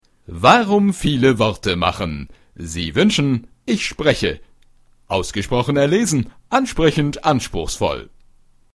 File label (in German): Werbung